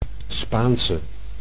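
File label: "Spaanse", Dutch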